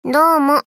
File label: CV Emotion